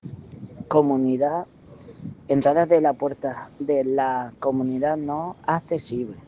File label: Voice message